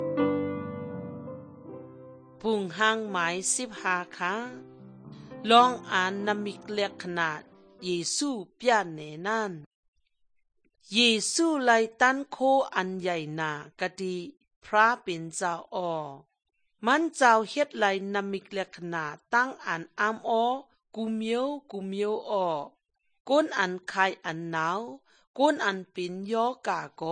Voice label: good news shan eastern, drama